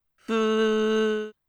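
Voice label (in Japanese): "ふゅ", Japanese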